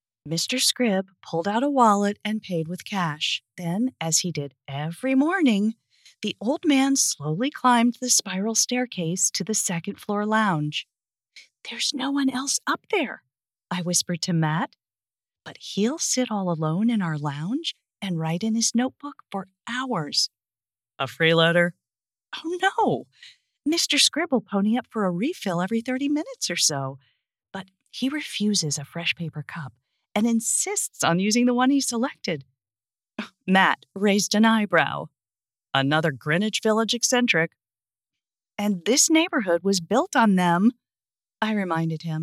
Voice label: Cozy Mystery dialogue Coffeehouse Regular is spooked